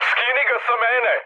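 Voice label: Serbian aircraft damaged mood high, speech; War Thunder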